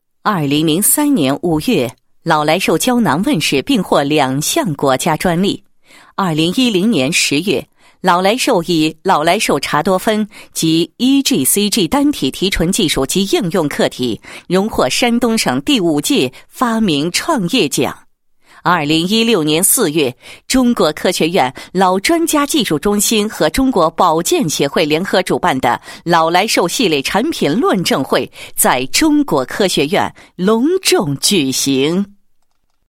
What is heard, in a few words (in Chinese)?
女, 企业宣传; 老来寿胶囊